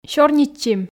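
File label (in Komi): "сёрнитчим", Komi, female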